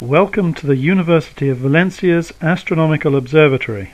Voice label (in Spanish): ingles